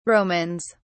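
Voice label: "romans", English, British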